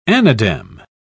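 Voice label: "anadem", British English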